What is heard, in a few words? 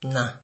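"na", Nigerian Pidgin, male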